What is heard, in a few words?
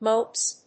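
"moats", English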